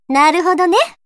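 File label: story, Japanese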